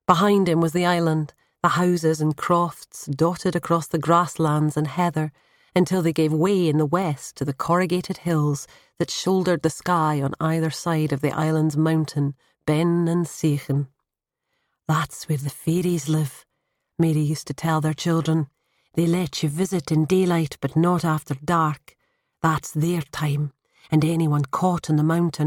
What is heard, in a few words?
Scottish Female Highland character